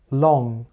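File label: "long", English word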